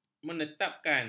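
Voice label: "menetapkan", Malay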